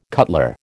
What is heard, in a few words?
"cutler", American